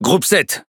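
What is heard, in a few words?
French Speech Pilots Bomber; World Of Warships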